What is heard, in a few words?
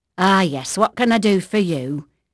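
English female, voice; Pirates Of The Caribbean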